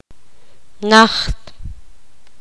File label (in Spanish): poqomam lejos